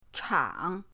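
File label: "chang", Mandarin pinyin, third tone; pinyindct-open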